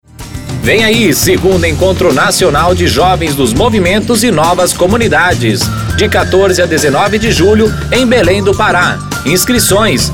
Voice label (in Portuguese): Spot